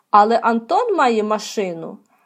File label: dialogue, Ukrainian